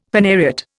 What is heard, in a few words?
"phanariot", Indian; Dictionary